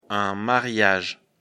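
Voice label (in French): "mariage", French; afrikalan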